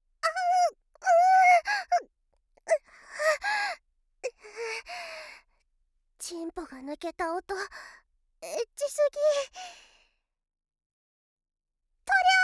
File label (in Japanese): 勝利パターン, ASMR